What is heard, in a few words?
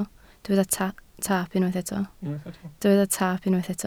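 "taap" Welsh, spoken word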